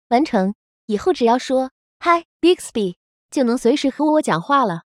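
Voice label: wake up succeed Chinese; Bixby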